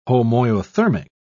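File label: "homoiothermic", British English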